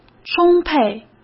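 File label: "充沛", Mandarin Chinese; chinese flashcards; hsk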